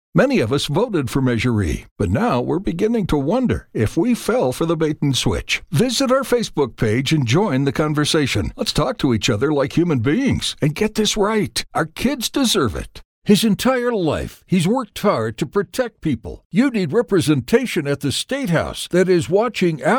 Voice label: english american political ads; professional grade studio is complete with Source Connect 4